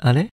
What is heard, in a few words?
doubt; Techmino; flore